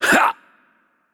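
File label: Spanish attack heavy voice; bloom minigame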